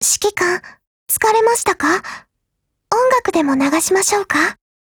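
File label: DIALOGUE, Japanese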